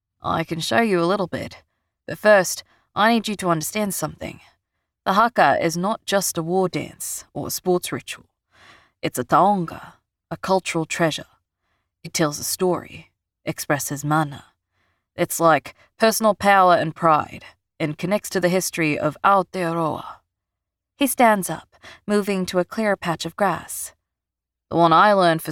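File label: New Zealand accent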